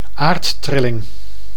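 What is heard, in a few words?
"aardtrilling", Dutch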